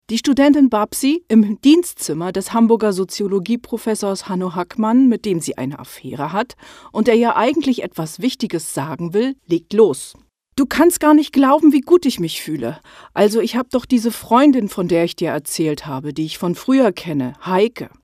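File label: German, female, multimedia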